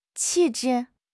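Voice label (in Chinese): "气质", Chinese; HSK6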